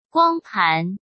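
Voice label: "光盘", Mandarin; chinese flashcards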